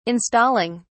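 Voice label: "installing", British English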